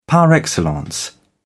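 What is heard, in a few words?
"par excellence", British English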